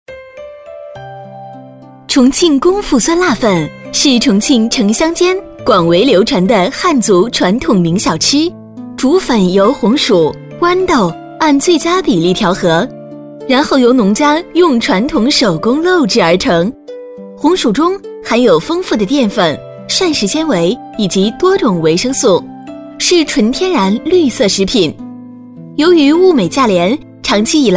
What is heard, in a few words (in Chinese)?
促销; 重庆功夫酸辣粉